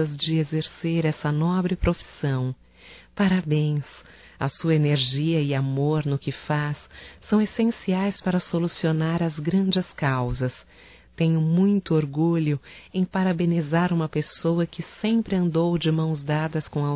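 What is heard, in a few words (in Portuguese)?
Homenagem ao advogado, voz feminina; Telemensagens; HOMENAGENS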